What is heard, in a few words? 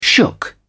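"shook", British English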